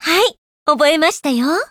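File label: Upgrade, Japanese; Peritya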